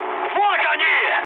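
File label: Russian enemy detected mood high, speech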